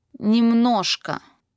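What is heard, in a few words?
"a little", Russian vocabulary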